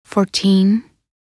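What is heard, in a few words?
"14", English; minute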